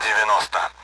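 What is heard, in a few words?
Speech Sound Russian Common AWACS Numbers; DCS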